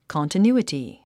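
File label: "continuity", 英単語 発音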